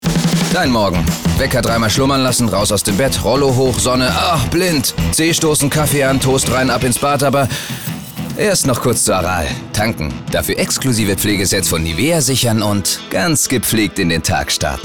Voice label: spot; aral

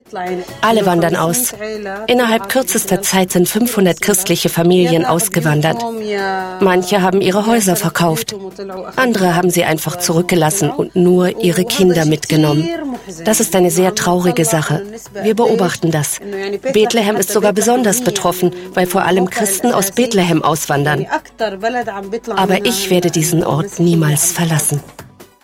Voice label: voice over; zu Tisch; Bethlehem

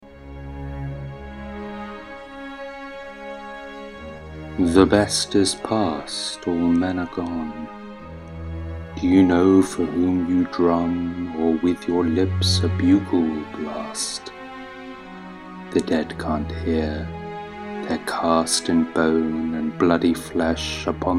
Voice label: poem

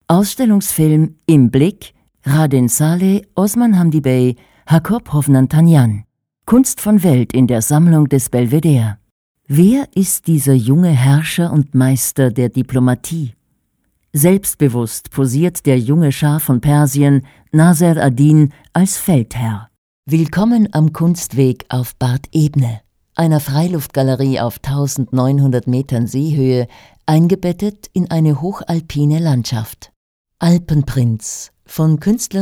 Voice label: medley audioguides

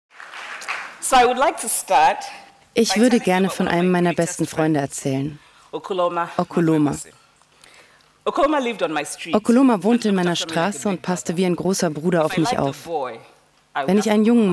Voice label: doku voiceover

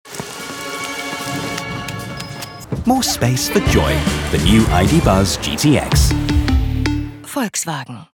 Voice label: tv commercial; vw id buzz 2024

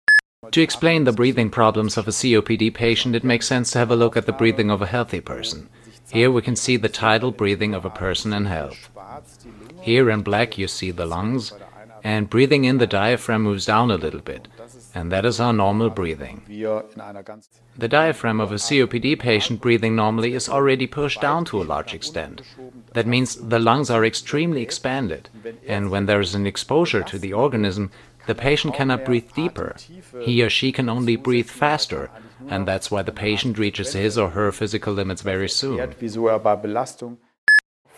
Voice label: voiceovers english

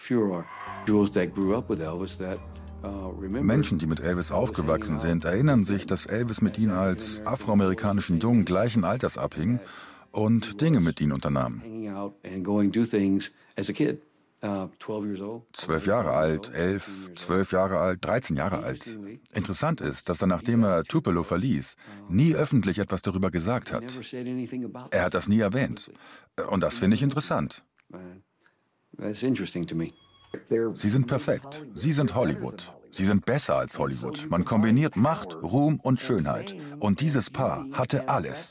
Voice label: voiceover doku; arte

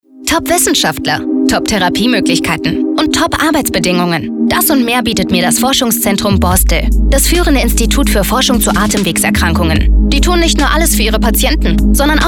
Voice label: testimonial

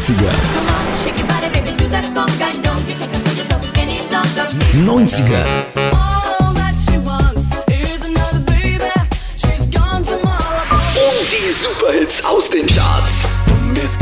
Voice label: radio jingles

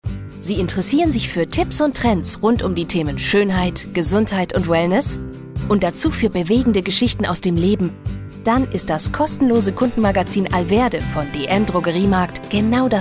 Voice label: Trailer; alverde

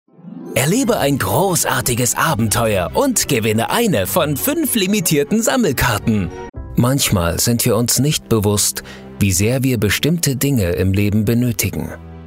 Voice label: commercial

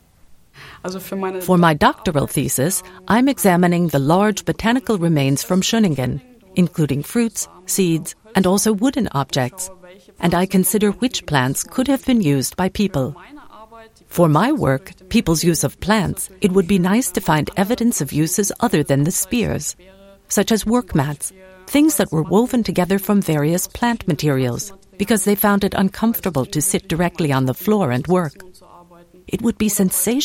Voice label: film voiceover

